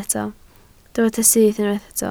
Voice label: "syth", Welsh